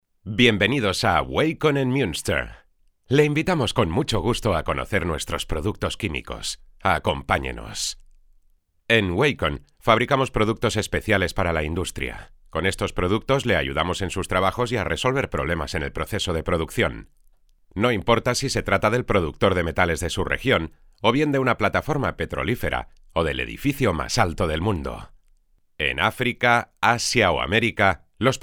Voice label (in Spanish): Narracion Spanish VO